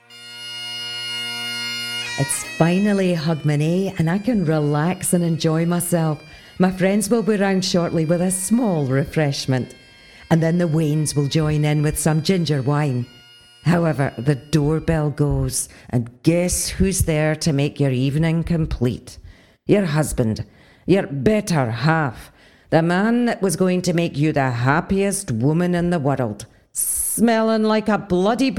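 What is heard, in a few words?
British English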